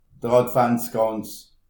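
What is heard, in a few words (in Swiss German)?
"adventskranz", Alsatian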